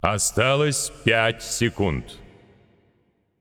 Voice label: Announcer Russian Time Remaining